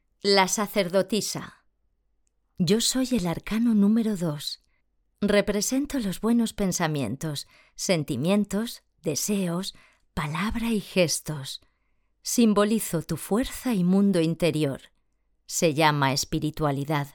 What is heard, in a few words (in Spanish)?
audiolibro, locutora